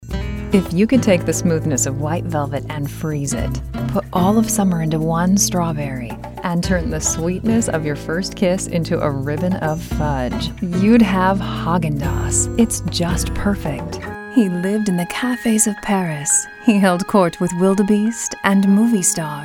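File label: commercial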